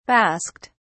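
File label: "basked", British English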